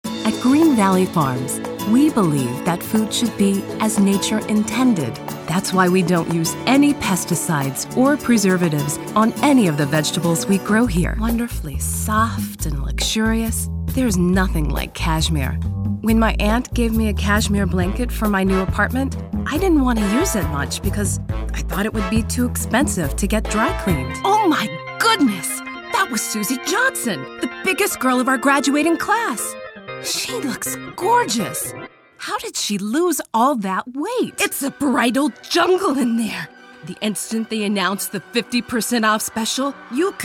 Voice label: Commercial Voiceover